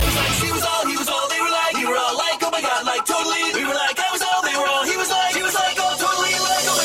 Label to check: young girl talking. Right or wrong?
Right